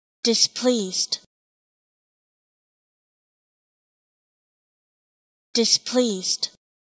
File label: Displeased Pronunciation